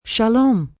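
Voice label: Hello Bye Hebrew, Greetings, female, Hebrew; Greetings And Goodbye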